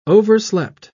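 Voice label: "overslept", English, British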